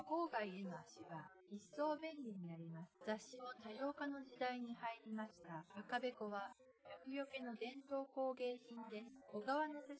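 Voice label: female instantaneous source; SiSEC10; underdetermined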